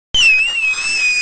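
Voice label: "li2", Mandarin Chinese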